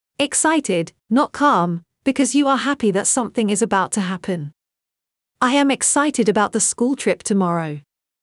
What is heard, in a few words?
Excited; English; Dictionary